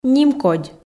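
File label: "нимкодь", Komi, female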